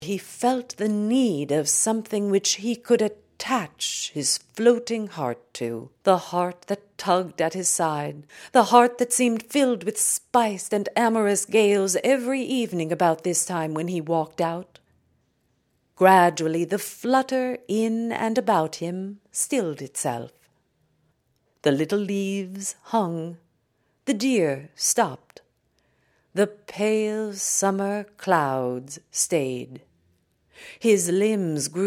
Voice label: Audiobook (Hörbuch), English NEUTRAL